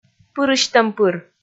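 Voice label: "пырысьтӧм-пыр", Komi, female; kpv audio dict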